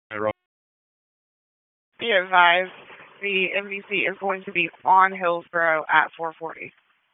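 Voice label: Police Southwest District Dispatch; Raleigh; wake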